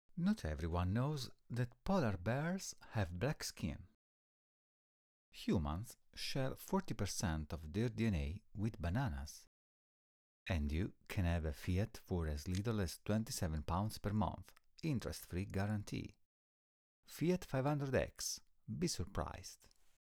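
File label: Italian, accent English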